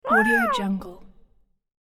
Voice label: cute baby dino surprised; cartoon sounds